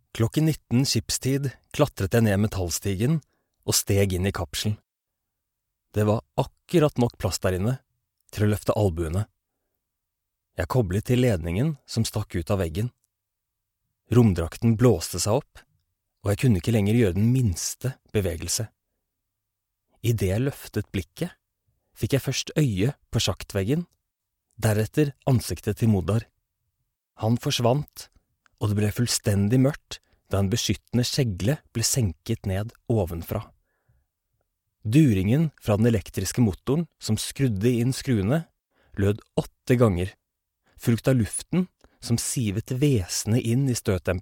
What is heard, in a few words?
norwegian audiobooks